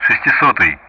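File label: Speech Russian; DCS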